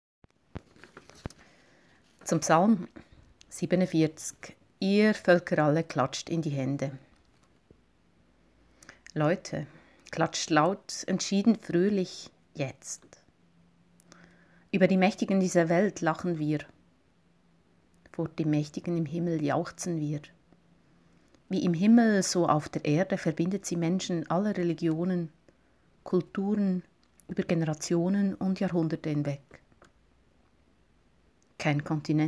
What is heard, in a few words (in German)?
Rezitation